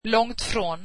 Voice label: swedish far from